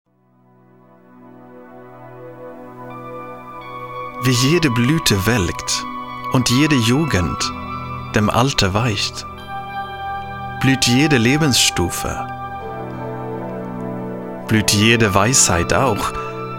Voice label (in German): Akzent Poetisch; Fremdtake